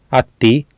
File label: "atti", Sanskrit